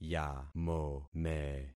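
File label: "yamome", concatenated syllables; Affixing experiment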